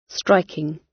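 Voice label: "striking", British English; Design and innovation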